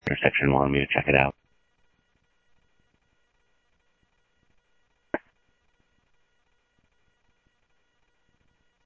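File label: Sheriff East County, scanner audio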